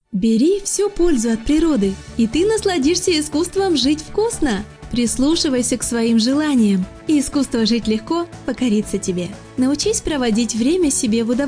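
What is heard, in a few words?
Russian Female Voice Over Speed Reading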